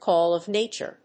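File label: "call of nature", English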